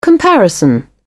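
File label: "comparison", British English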